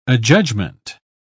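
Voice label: "adjudgment", British English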